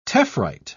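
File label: "tephrite", British English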